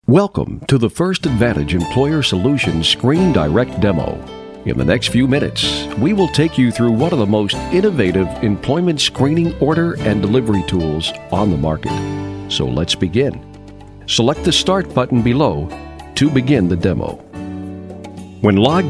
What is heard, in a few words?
narration